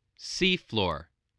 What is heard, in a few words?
"seafloor", english spelling